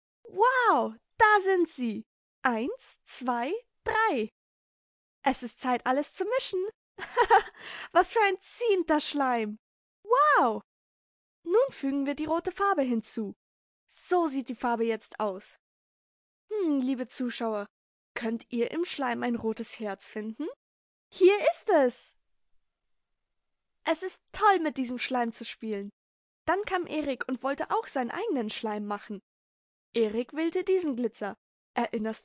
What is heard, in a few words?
GERMAN